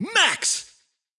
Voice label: intense